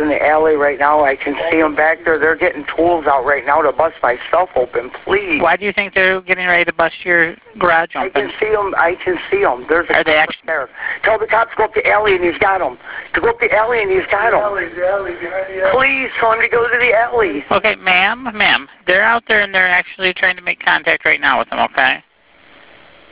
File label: 911 call; Second 911 call; Garage Breakins; Marijuana Thieves